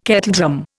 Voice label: "kettledrum", Indian

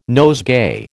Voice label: "nosegay", American

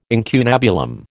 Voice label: "incunabulum", American

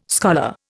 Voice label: "scholar", Indian

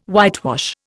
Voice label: "whitewash", Indian

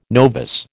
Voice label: "novice", American

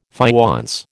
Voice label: "faience", American

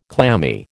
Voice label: "clammy", American

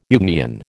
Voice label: "Jungian", American

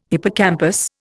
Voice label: "hippocampus", Indian

